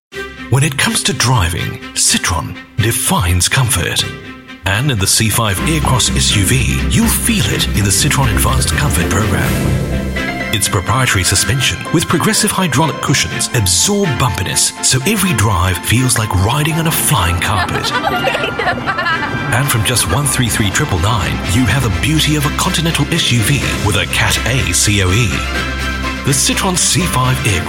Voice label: Asian English ANNOUNCER; Citroen